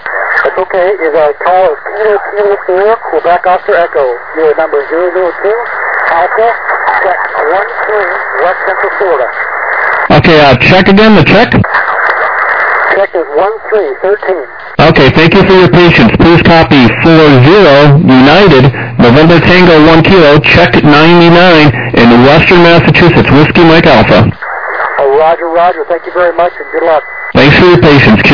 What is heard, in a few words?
USB 21MHz